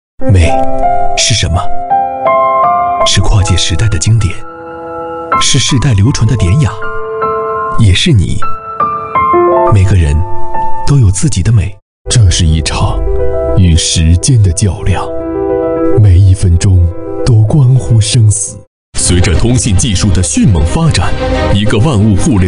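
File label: Chinese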